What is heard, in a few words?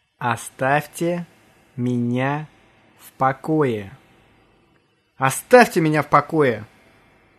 leave me alone, russian